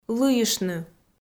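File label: "лыйыштны" Komi, female; kpv audio dict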